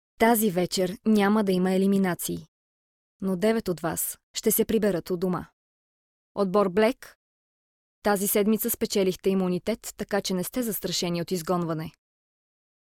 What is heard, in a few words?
bulgarian f narration, voice